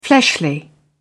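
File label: "fleshly", British English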